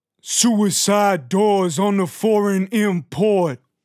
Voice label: Predrop Dry "Suicide Doors" - 130 BPM; Cymatics; Euphoria Vocal Sample Pack